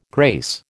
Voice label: "grace", American

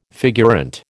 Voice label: "figurante", American

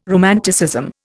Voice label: "romanticism", Indian

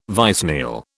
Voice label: "vicennial", British

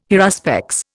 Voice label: "haruspex", Indian

